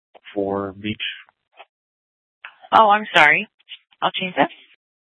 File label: Sheriff, scanner P25